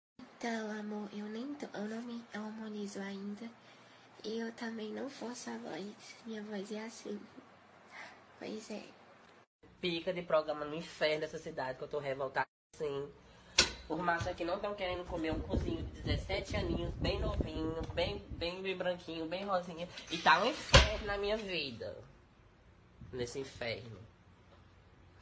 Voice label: forcando a voz

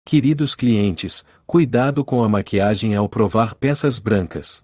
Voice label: aviso maquiagem

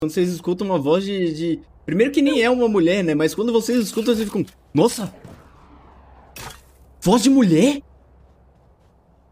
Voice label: coreano nossa voz de mulher

